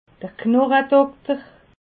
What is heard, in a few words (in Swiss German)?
"knochedokter", alsace